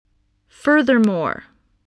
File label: "furthermore", voice, English